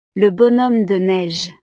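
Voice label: "le bonhomme de neige", French; navidad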